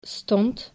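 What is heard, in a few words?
"stond", Dutch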